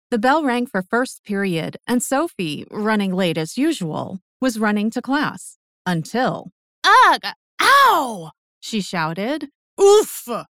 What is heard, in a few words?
Audiobook